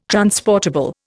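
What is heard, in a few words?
"transportable", Indian English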